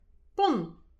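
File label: "pont", Breton; petit precis de prononciation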